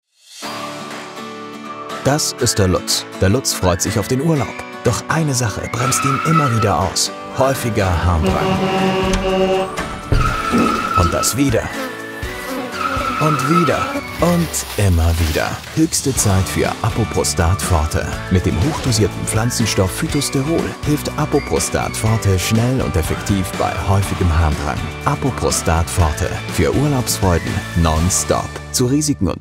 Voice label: German M Medical